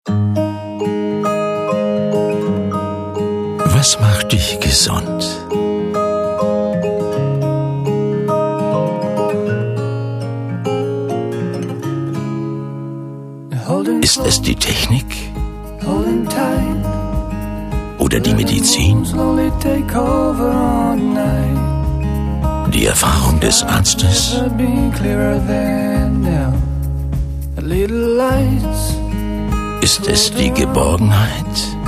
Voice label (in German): Barmherzige Brueder Sanft